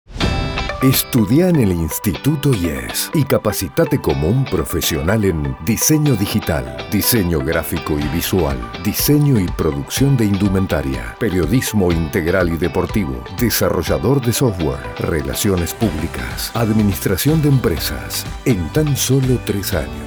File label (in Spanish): Spot Radio; IES Carreras